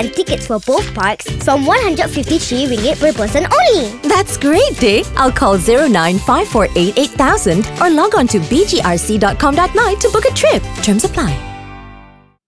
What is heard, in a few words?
CITY BRANDING ENG; SENTORIA; BUKIT GAMBANG RESORT